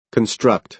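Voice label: "construct", American English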